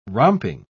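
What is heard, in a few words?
"romping", English, British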